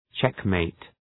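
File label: "checkmate", English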